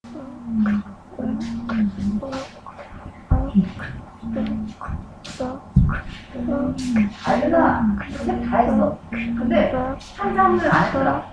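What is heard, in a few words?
"book", spoken word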